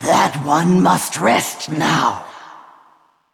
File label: female; Val'kyr; ERROR MINION ATTACKED